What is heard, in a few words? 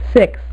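"six", female; MacCribbage; InterStellar MacCribbage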